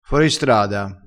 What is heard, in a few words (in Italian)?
"foristrada", crespinese